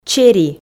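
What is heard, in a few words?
"чери", Komi, female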